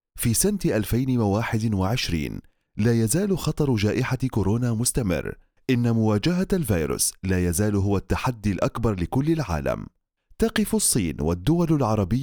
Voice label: Arabic voice over video promotion